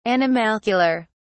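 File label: "animalcular", British English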